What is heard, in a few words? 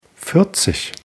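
"vierzig", German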